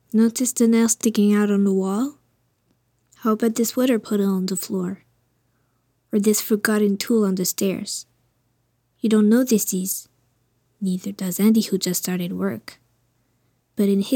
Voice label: Demo Vocal EN; CNESST